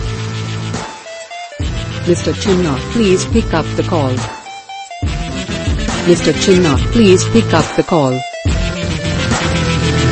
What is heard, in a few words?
Please Pickup The Phone Ringtone With Music; ringtones